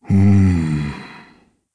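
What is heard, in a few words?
Vox Think, Japanese; Dakaris; kingsraid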